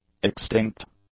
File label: "extinct", english; adjectives